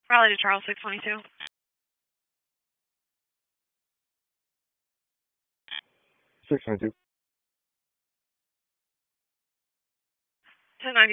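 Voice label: Police District Dispatch, p25; Raleigh Police Southwest District Disp; wake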